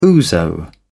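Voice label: "ouzo", British English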